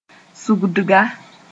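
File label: "sũgdga", Mooré; articulation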